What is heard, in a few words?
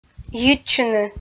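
"йитчыны", Komi, female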